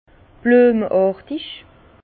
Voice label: "blueme artig", Bas Rhin; floral; vin

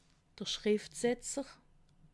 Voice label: "schriftsetzer", alsace

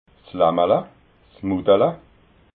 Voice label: "lammala", alsace

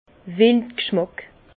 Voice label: "wild gschmack", Bas Rhin; venaison

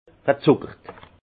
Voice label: "gezuckert", alsace; vin; sucré

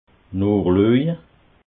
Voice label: "noochlueje", alsace

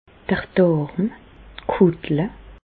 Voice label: "d'r Dàrm, d' Kùttle", Bas Rhin; intestin; medecine

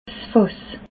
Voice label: "fass", alsace

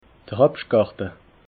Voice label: "obschtgarte", alsace

